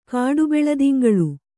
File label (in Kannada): "ಕಾಡುಬೆಳದಿಂಗಳು", Kannada